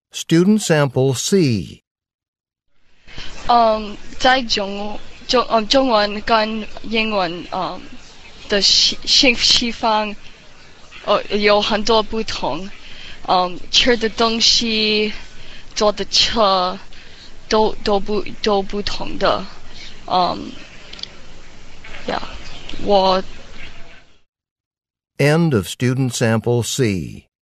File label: chinese conversation